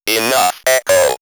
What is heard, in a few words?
Robot Enough Echo!, robot vox; X-Static Goldmine